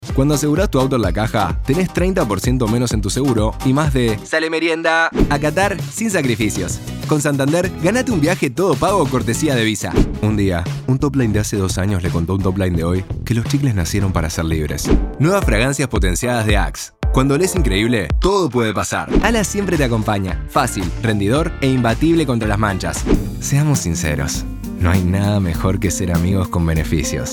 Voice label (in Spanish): Comercial